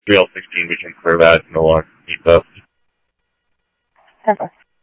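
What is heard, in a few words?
police scanner radio, Scottsdale Police; ThompsonPeakHigh; SCTSDLE PD - PD2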